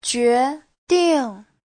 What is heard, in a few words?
"jue2 ding4", chinese; 决定; (động từ) quyết định; Giáo trình chuẩn HSK3